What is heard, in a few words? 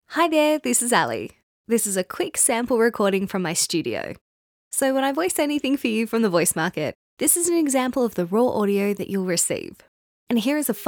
Studio Sound Check